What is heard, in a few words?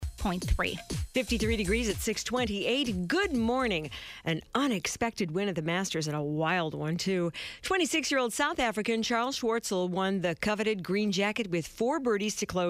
FM news; WILV FM news